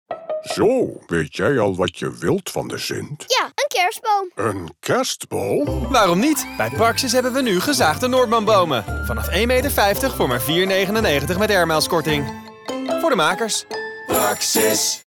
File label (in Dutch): Dutch, Christmas Commercials; NL; Christmas Commercials 2023; Praxis